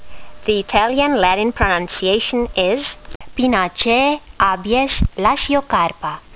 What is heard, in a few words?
latin; Abies lasiocarpa